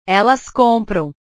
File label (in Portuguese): "elas compram", portugues; comprar; portugues/verbos